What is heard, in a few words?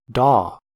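"daw", US English; pronunciations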